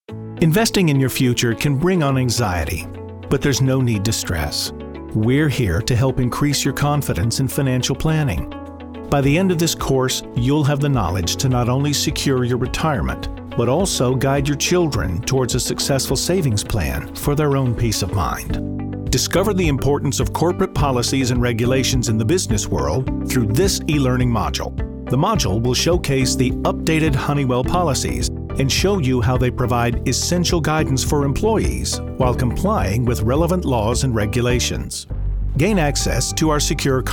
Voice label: Narration; male voice actor